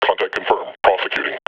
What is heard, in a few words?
combine alert, combine soldier vo